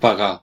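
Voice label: "hit", Catalan; gcompris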